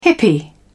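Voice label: "hippie", British English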